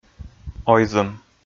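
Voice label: "ойзӧм", Komi, male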